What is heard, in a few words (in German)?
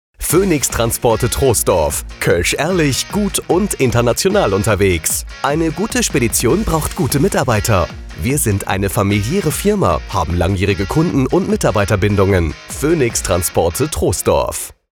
Funkspot; Phoenix Transporte Troisdorf